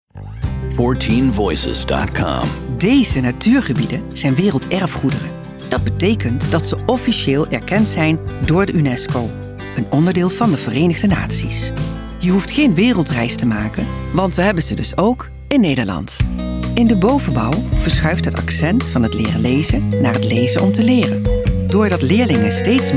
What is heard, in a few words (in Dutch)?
Narratief